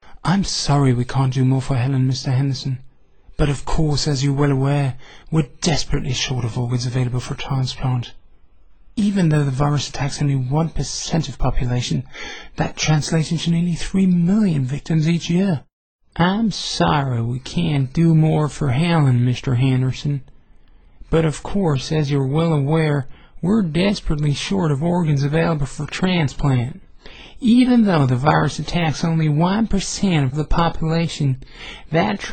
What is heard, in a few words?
voice, native english